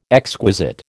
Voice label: "exquisite", American

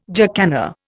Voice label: "jacana", Indian

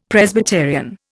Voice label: "Presbyterian", Indian; Dictionary

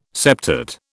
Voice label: "septate", British

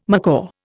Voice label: "macaw", Indian

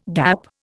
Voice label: "gap", Indian

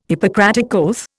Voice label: "Hippocratic oath", Indian

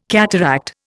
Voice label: "cataract", Indian

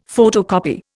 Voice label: "photocopy", Indian; Dictionary

